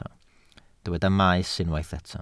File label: "maes", Welsh